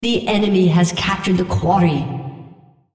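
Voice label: female Quarry Enemy; Odin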